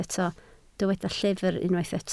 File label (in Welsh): "llyfr", Welsh